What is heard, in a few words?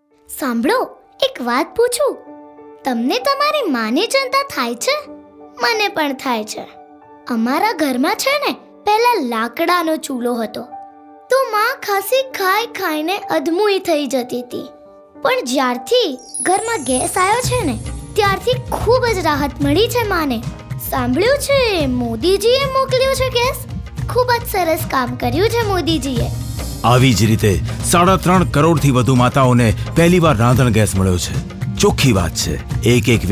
Ujjwala Radio Gujarati